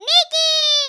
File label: "VICTORY", Greek; Worms Armageddon